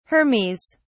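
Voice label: "hermes", British English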